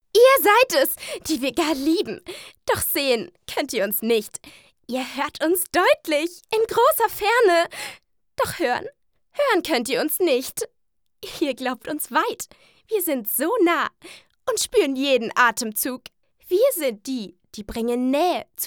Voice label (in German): charge, frauen; sprecher